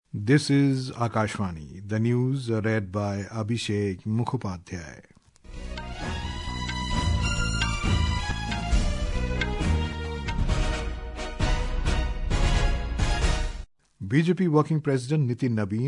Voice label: English; Cricket: India thrashed England in Rajkot test